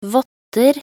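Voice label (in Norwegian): "votter", Norwegian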